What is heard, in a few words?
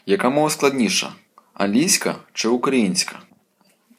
dialogue, Ukrainian